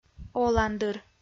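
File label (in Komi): "оландыр", Komi, female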